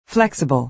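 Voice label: "flexible", American English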